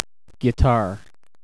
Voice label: "guitar", usa